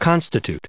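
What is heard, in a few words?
"constitute", US English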